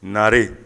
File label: "nare", Bambara; dumini